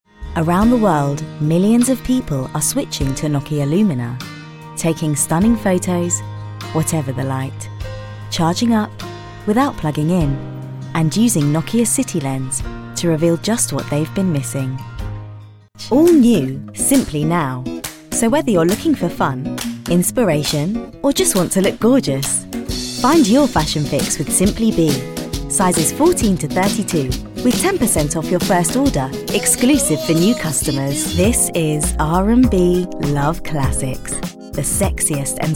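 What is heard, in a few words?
English UK, female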